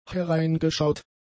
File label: "hereingeschaut", German; adjectives